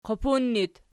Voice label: "qupun", Archi